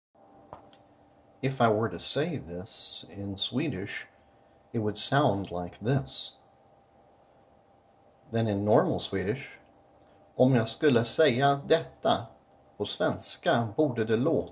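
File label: attempting to sound southern Swedish; What Are the Most Annoying, or Loved, Accents in the World? A US vs. A World Perspective.; Eye of the Flyer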